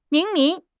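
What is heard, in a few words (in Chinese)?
"明明", Chinese; HSK6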